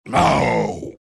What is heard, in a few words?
pain; tiny